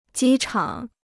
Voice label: "机场", Chinese

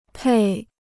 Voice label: "配", Chinese

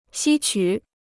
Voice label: "吸取", Chinese

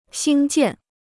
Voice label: "兴建", Chinese

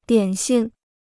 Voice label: "点心", Chinese

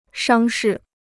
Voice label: "伤势", Chinese

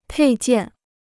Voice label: "配件", Chinese

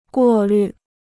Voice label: "过滤", Chinese

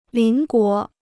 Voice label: "邻国", Chinese

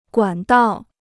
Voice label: "管道", Chinese

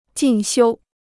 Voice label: "进修", Chinese

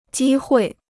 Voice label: "机会", Chinese

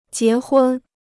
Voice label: "结婚", Chinese

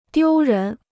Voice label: "丢人", Chinese

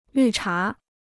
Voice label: "绿茶", Chinese

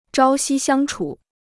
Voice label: "朝夕相处", Chinese

